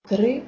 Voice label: "3" Belarusian

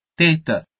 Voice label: "tete", Albanian

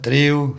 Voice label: "driu" Bavarian

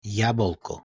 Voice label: "jabolko", Macedonian

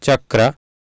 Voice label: "chakra", Sanskrit

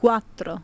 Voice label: "quattro", Italian

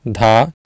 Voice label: "dhaa", Sanskrit

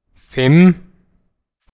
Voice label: "fimm", Icelandic

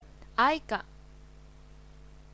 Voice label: "aika", Mittani

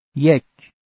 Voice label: "yek", Farsi

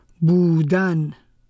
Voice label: "buudan", Persian

